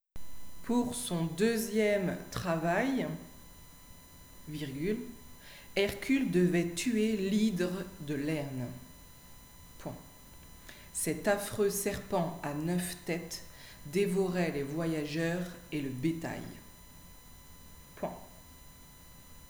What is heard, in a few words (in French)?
dictee